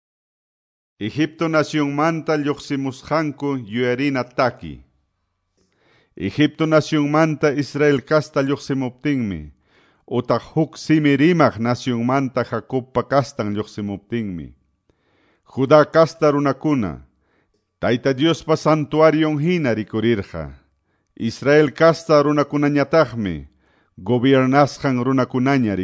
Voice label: salmos, quechua ayacucho

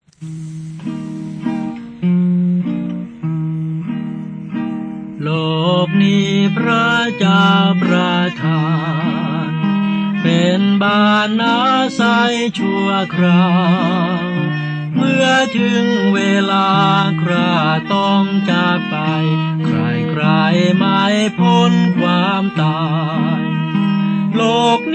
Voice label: thai southern; words of life 2 believing in god

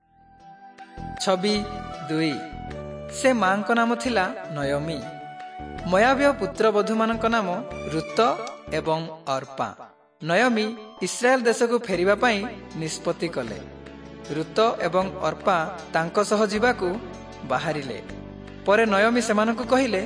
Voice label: southern oriya; servants of god

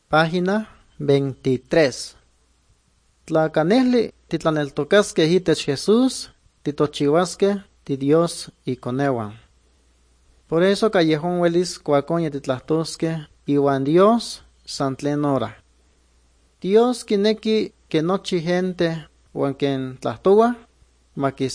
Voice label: good news, nahuatl guerrero chilapa

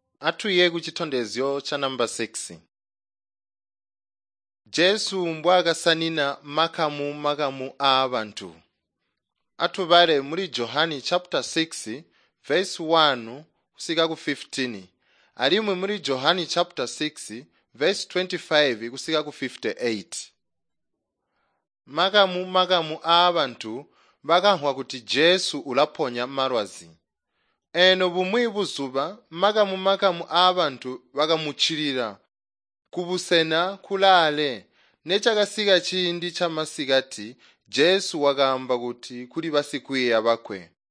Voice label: tonga plateau, drama; chitonga bsz nt drama

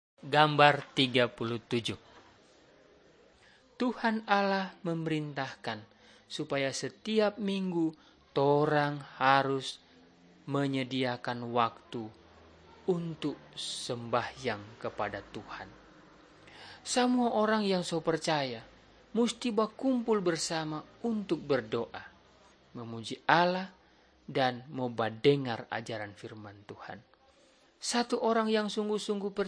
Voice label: malay manado; good news

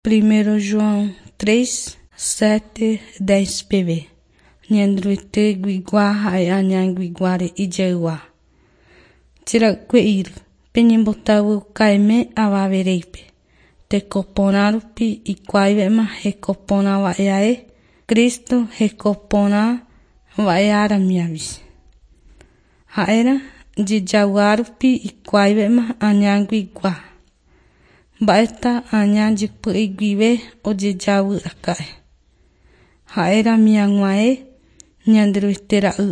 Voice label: john guarani mbya, bible

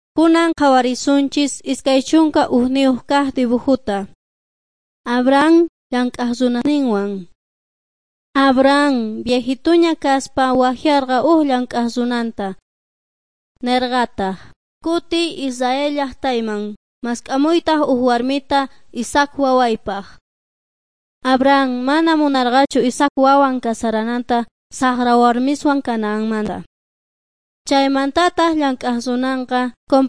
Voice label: beginning with god quechua s bolivian cochabamba

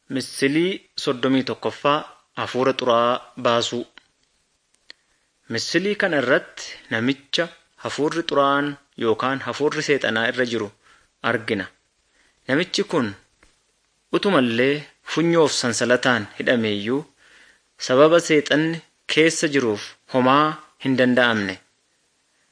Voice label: good news oromo wellega

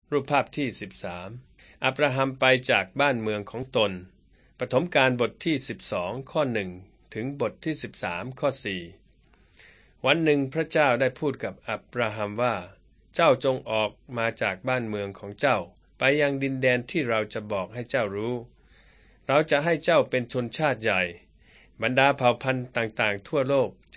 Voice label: beginning with god thai central

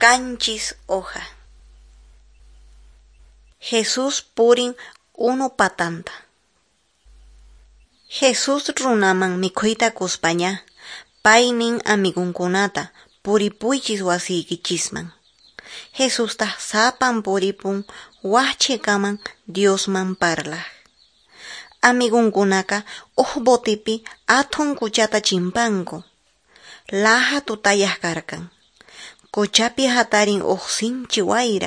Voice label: quechua norte de bolivia apolo

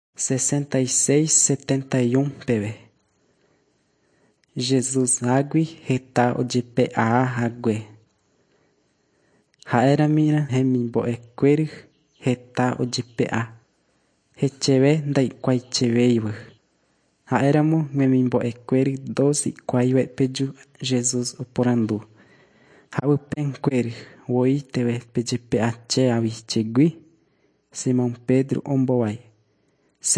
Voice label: john guarani mbya, bible

